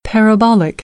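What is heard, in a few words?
"parabolic", American English; AmericanBritish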